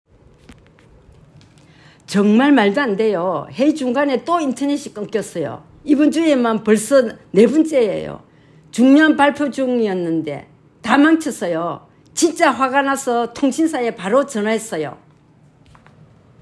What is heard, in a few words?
Old Female Angry, korean voice emotion